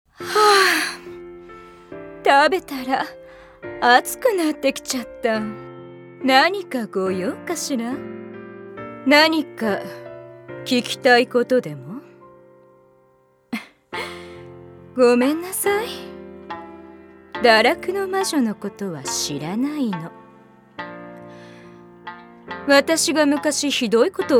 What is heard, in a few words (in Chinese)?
御姐女王-日语-女